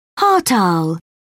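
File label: "hartal", British English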